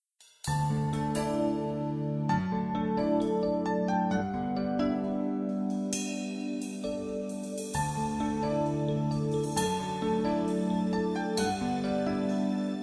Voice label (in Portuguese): ANIV COM SALMO 23 FEM; Aniversários